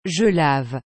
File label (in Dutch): "je lave", frans; laver